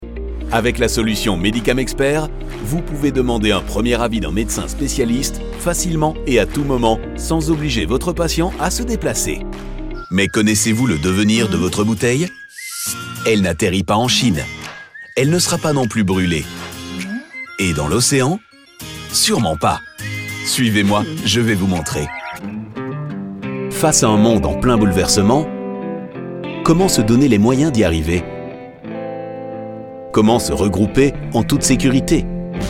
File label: Corporate